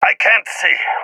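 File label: blinded, voice, agents, balkan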